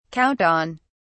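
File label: "count on", British English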